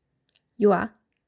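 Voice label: "jua", "a-a", Northern Sami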